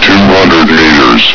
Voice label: "two hundred meters", Combine soldier; hl2